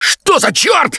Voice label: grabbed by smoker, russian, Survivor Voice; Left 4 Dead 2; Gambler